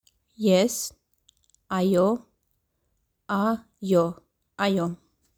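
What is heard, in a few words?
English "Yes", Armenian "Ayo"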